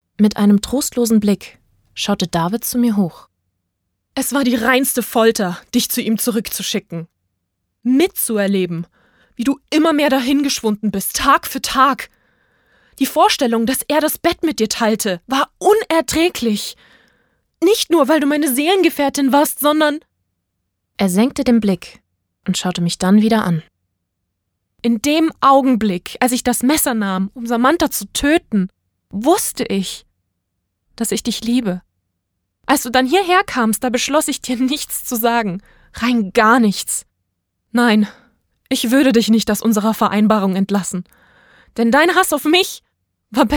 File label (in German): Hoerbuch Romance